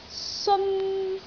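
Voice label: "seon1", Cantonese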